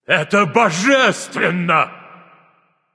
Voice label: divine, Announcer Killstreak